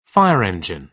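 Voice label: "fire engine", British English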